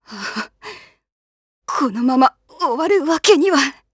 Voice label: Dead, jp; Glenwys; kingsraid

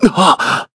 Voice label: Human Sur, kr, voices; heroes; Shakmeh; kings raid

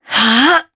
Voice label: Casting, jp; Naila; kingsraid

